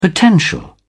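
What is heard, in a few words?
"potential", British English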